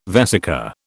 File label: "vesica", British